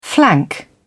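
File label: "flank", British English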